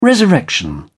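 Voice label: "resurrection", English, British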